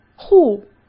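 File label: "护", Mandarin Chinese; hsk; chinese-flashcards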